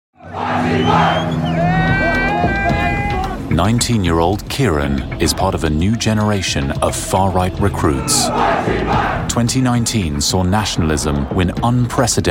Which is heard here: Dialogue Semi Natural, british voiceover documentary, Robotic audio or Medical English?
british voiceover documentary